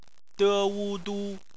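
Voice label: "du" bopomofo, Chinese; phonetics; bpmf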